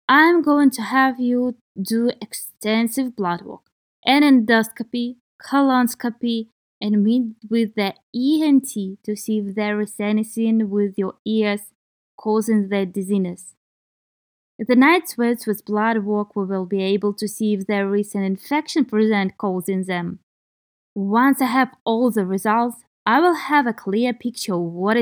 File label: Medical Certified Oral Russian Practice